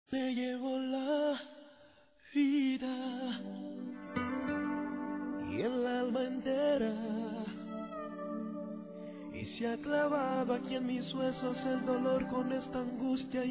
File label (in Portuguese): ANIV. ROMANTICO F